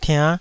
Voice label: "tiann5", Taiwanese

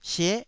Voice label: "sie9", Taiwanese

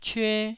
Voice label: "cyue1", Taiwanese syllable

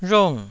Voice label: "rhong4", taiwanese

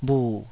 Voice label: "bhu3", taiwanese syllables; 台語音節發音檔案

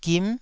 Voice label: "gim9", Taiwanese syllable

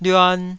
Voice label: "lyuan2", Taiwanese syllable

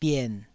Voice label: "bien3", taiwanese

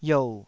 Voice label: "iou3", taiwanese syllables

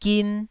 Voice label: "gin1", taiwanese

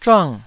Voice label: "zhuang4", taiwanese

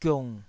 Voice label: "giung3", Taiwanese syllable

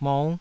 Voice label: "mou5", taiwanese syllables

